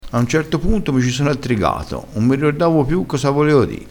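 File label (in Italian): "attrigato", vocabolario dialettale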